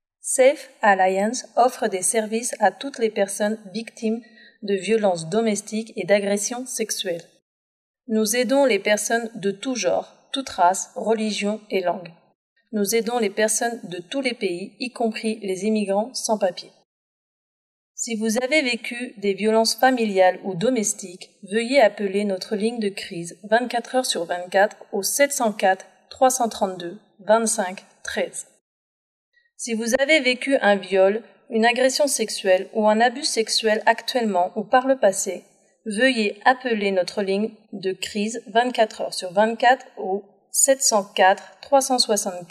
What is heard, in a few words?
french